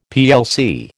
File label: "plc", American